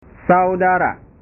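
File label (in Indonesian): "saudara", Indonesian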